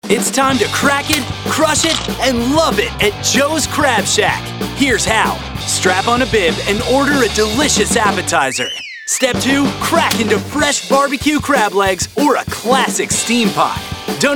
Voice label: HI ENERGY